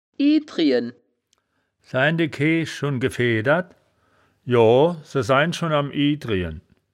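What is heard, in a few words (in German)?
"idrijen", wittlicher woerterbuch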